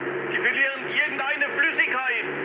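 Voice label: German tank damaged mood high, speech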